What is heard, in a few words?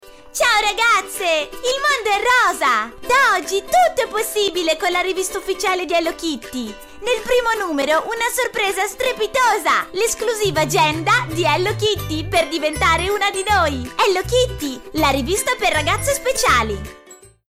female italian comercial; ideasonora